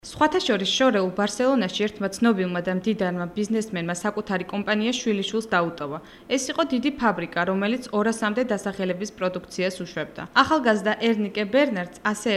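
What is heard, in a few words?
georgian female narration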